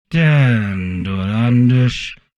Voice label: humanoid portrait male; Stellaris; humanoids

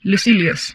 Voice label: humanoid female; Stellaris; humanoids

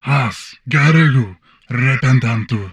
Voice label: humanoid male; Stellaris; humanoids

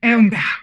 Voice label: humanoid female; Stellaris; humanoids

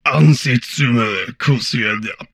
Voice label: humanoid portrait male; Stellaris; humanoids

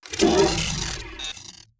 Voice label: robot mammalian greetings; Stellaris; synthetic dawn

